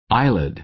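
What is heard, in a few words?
"eyelid", American English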